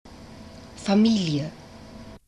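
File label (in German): "Familie", German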